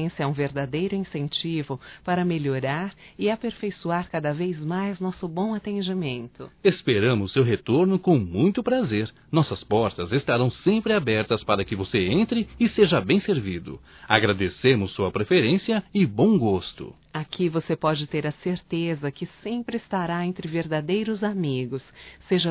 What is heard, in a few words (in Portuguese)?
CLIENTE ADVOGADO DUAS VOZES, Telemensagens; Profissionais; fem; Telemensagens 2017